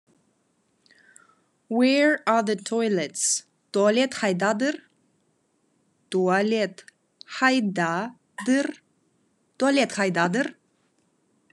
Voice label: "Where are the toilets?", Khakas

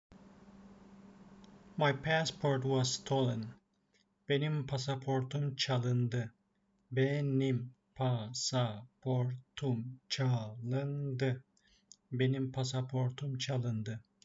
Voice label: my passport was stolen, turkish; the talking turkish phrasebook

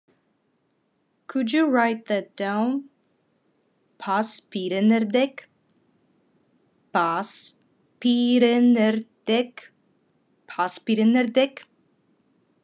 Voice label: Khakas Could you write that down

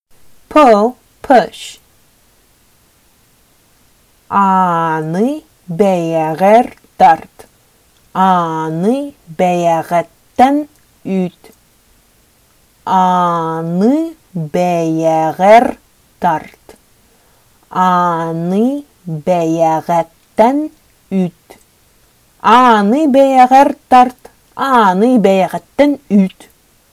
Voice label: pull push, Sakha

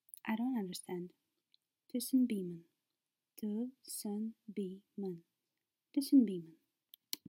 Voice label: Kaz I dont understand

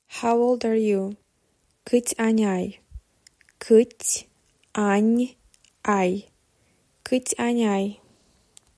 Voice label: How old are you, romanian

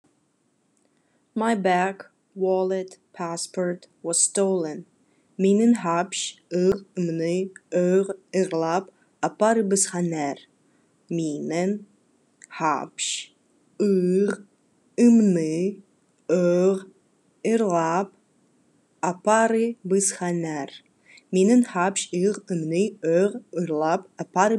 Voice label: Khakas My bag wallet passport was stolen

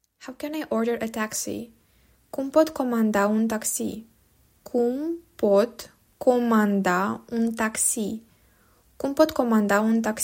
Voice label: How can I order a taxi, romanian

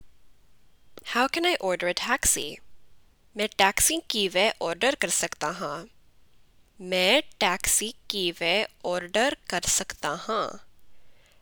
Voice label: Punjabi How can I order a taxi

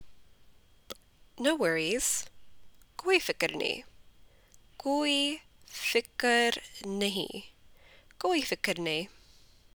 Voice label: Punjabi No worries

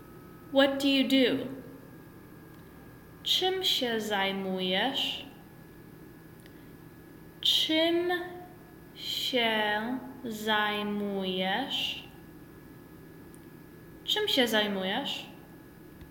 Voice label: What do you do, Polish; the talking polish phrasebook

